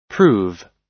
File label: "prove", us